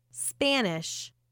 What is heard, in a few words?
spanish